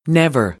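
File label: "never", American English; AmericanBritish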